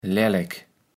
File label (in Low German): "lällek", kaevels platt